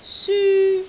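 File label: "syu1", Cantonese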